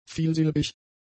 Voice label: "vielsilbig", German; adjectives